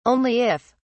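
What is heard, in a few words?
"only if", British English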